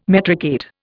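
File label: "metricate", English, Indian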